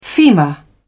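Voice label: "femur", British English, dictionary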